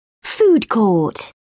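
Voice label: "food court", British English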